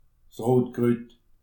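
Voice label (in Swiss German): "rotkrut", Alsatian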